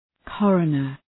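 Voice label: "coroner", English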